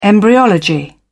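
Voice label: "embryology", British English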